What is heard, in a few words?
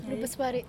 woman in cafe